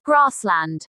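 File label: "grassland", English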